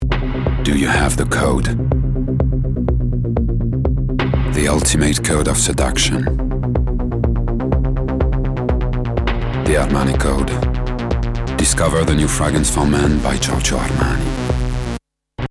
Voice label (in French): anglais; Armani